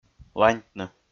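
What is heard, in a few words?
"ланьтны", Komi-Zyrian, male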